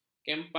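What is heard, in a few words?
"kempang", Malay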